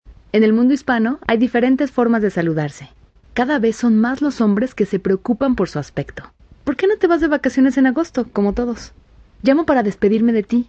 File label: Spanish South America F